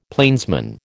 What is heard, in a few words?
"plainsman", American